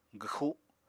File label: "gwxu", Apatani